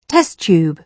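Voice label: "test tube", British English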